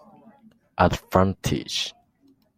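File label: "advantage", Japanese, male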